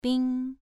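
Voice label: "bing" Mandarin, second tone, pronunciation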